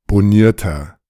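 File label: "bonierter", German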